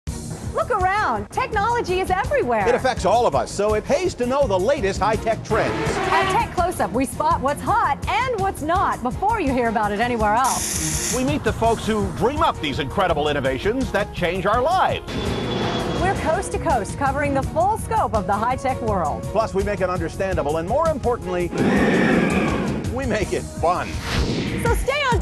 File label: Promo; TechCloseup